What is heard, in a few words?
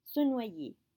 "se noyer", French